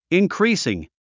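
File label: "increasing", English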